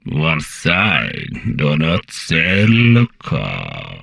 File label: humanoid portrait male; Stellaris; humanoids